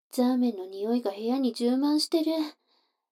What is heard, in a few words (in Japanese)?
女の子台詞